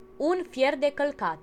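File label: clothes iron, Romanian; household; tuxpaint-stamps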